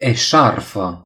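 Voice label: "scarf", Romanian; gcompris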